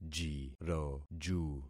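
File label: "jiroju", concatenated syllables; Affixing experiment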